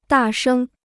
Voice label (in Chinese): "大声", Chinese